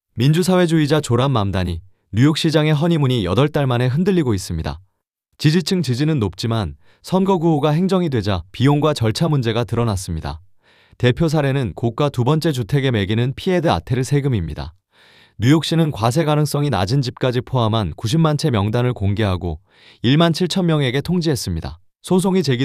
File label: narration